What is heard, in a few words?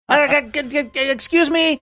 I excuse me funny